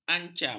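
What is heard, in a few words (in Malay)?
"ancam", Malay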